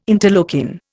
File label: "interleukin", Indian